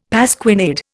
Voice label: "pasquinade", Indian; Dictionary